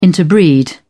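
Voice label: "interbreed", British English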